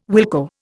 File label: "wilco", Indian